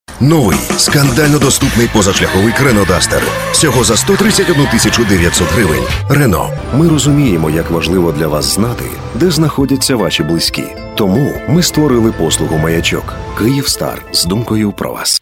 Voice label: locutor ucraniano ukrainian voiceover; Ukrainian male voice over